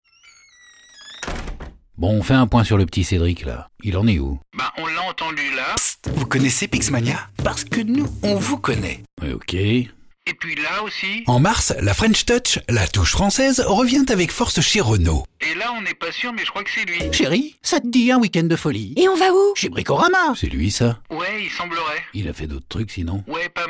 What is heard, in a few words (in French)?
presentation pubs radio